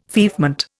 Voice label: "feoffment", Indian

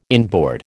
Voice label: "inboard", American

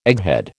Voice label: "egghead", American

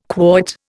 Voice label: "quoit", Indian

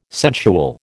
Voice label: "sensual", American

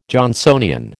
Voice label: "Johnsonian", American

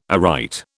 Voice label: "aright", British

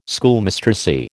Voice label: "Schoolmistressy", American

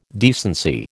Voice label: "decency", American

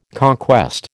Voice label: "conquest", American

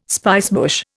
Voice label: "spicebush", Indian

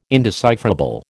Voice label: "indecipherable", American

